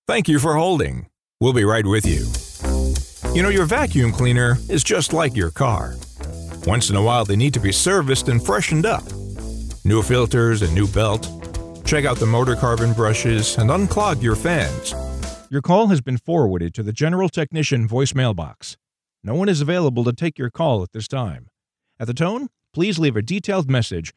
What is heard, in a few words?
english american ivr